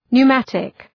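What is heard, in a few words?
"pneumatic", English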